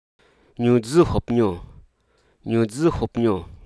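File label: Hruso Aka; confirm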